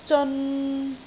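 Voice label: "zeon3", Cantonese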